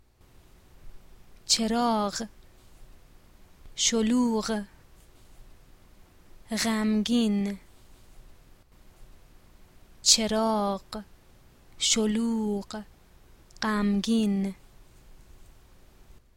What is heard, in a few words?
pronunciation, Persian